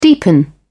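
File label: "deepen", British English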